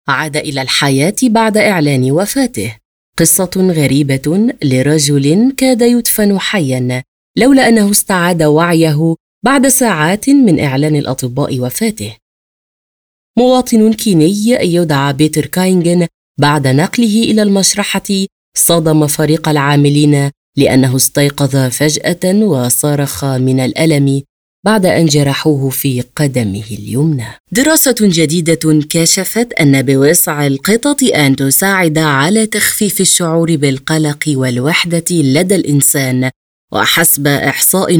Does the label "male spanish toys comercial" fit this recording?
No